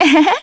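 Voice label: Vox, Korean; Cassandra; kingsraid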